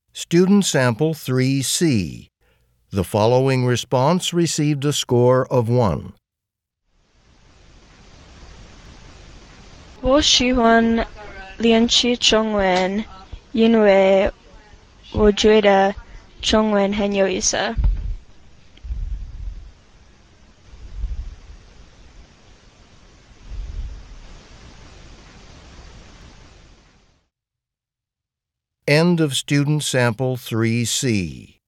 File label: chinese conversation